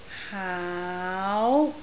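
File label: "haau2", Cantonese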